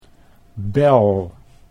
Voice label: "bel", Dutch